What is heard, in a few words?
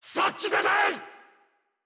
jp Voice Skill